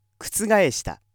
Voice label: male